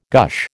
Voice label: "gush", American